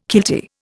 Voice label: "kiltie", Indian

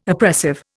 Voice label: "oppressive", Indian

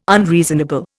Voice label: "unreasonable", Indian

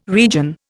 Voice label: "region", Indian

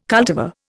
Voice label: "cultivar", Indian

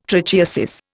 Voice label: "trichiasis", Indian

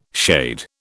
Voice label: "shade", British

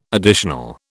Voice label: "additional", British

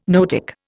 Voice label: "noetic", Indian

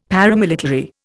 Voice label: "paramilitary", Indian; Dictionary

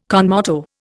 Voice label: "con moto", Indian

